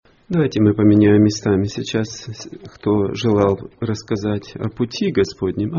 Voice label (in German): ansage; Братское (Германия, 04-25)